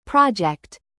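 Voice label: "present", noun, British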